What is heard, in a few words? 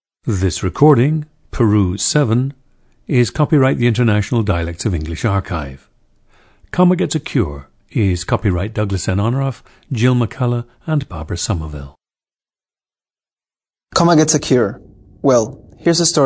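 peru, dialects of English; Peru 7; International Dialects of English Archive; a 27-year-old man from San Borja and San Isidro, Lima, Peru.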